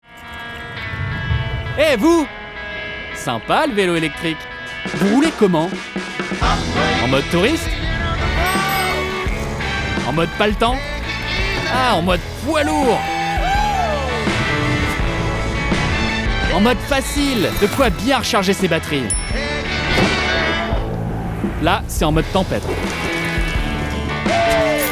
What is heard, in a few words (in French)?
Pub FR; Upway